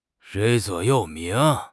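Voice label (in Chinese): "座右铭", Chinese; HSK6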